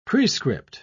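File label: "prescript", British English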